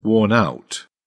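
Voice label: "worn-out", British English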